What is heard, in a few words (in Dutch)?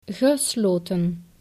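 "gesloten" Dutch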